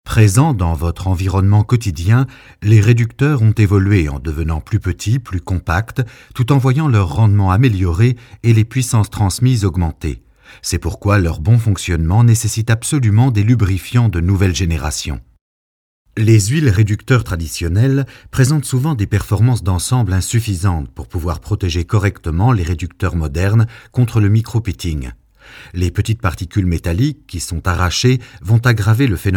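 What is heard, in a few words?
French narration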